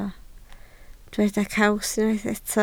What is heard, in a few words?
"caws", Welsh